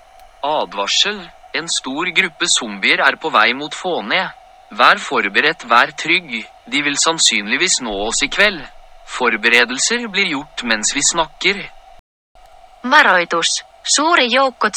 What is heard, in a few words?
Warning Multilingual